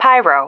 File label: "pyro", female